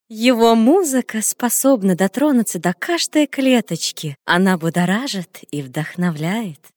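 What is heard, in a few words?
Russian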